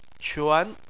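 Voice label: "quan", Mandarin Chinese, second tone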